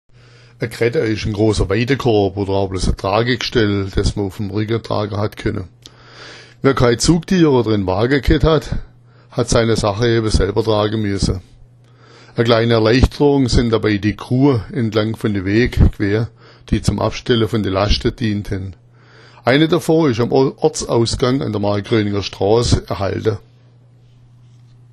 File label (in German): graedda, schwaebisch; A‘ Grädda ist ein großer Weidenkorb, oder auch nur ein Tragegestell